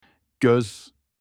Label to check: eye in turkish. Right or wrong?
Right